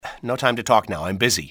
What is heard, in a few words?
"no time", english, male, civ; XBOX